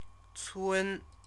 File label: "cun", Chinese; CTCFL; University of Oxford